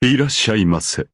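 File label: "irasshai", Japanese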